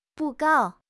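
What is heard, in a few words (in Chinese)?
"布告", Chinese; HSK6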